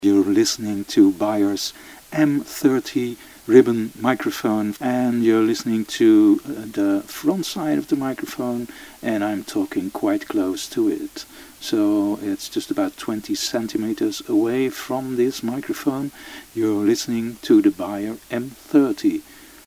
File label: Beyer M30 UK